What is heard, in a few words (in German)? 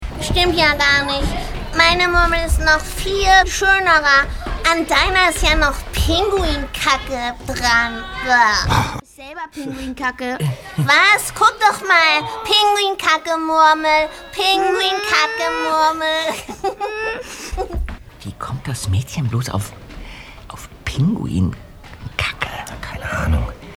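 Kinderstimme Hörspiel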